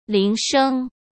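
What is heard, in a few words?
"铃声", Mandarin Chinese; hsk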